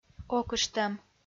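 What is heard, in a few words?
"окыштӧм", Komi, female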